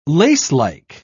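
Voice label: "lacelike", British English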